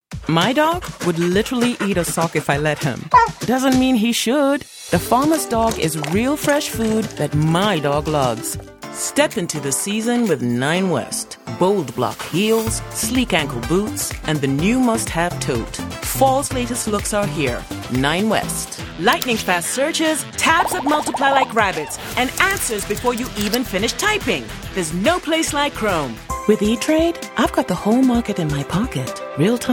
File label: Commercials